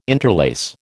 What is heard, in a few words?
"interlace", American